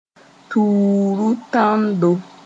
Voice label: "tuuru tãndo", moore

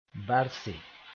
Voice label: "barse", Mooré

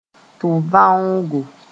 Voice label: "tʋb-vãoogo", moore; auricle (of ear)

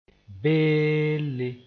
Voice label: "beelle", Mooré

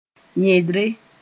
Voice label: "yẽdre", Mooré; anguish

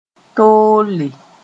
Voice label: "toole", Mooré

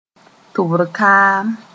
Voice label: "tʋbr kaam", moore; earwax

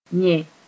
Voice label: "yẽ", Mooré

